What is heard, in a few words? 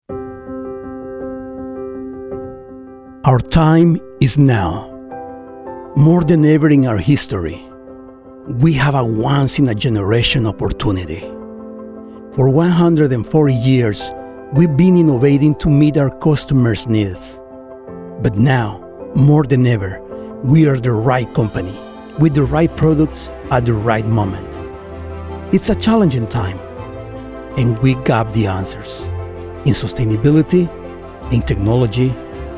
Corporate ENGLISH w Spanish accent